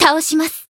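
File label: finish, Japanese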